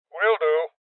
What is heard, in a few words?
Voices Confirmation Male Old